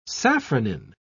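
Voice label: "safranin", British English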